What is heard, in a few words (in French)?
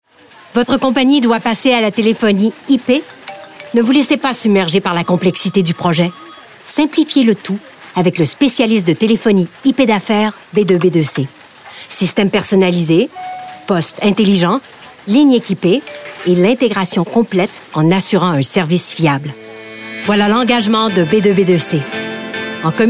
démo voix B2B2C